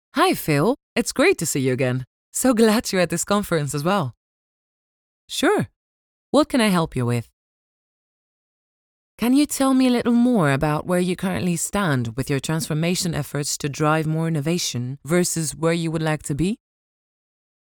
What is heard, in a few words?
english corporate videos